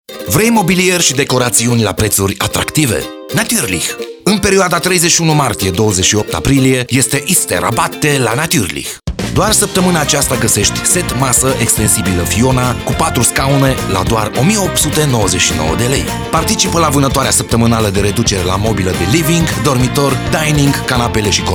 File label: spot